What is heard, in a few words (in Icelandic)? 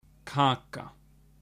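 "kaka", Icelandic; matarhefti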